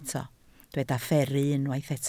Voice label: "fferru", Welsh

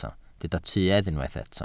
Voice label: "tuedd", Welsh

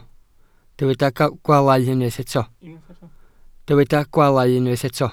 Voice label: "gwaalau", welsh

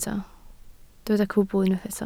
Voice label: "cwbl", Welsh

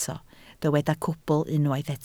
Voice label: "cwbl", Welsh

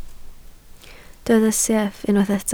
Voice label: "sieff", Welsh

